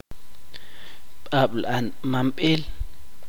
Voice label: tia la hermana mayor de su padre, chuj

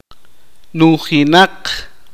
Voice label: lleno, poqomchi

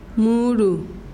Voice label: "huevo", mazahua

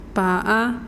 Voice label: "dia", mazahua

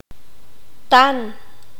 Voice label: "cenizas", Q'anjob'al

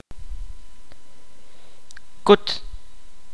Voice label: "corto", Kaqchikel

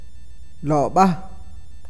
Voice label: "fruta", awakateko

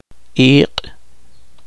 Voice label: viento día del calendario maya, poqomchi

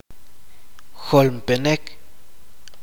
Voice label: "rodilla", chuj

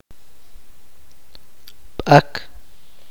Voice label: "hueso", chuj

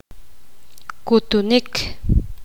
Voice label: poqomam tirar

